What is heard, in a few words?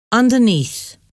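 "underneath", English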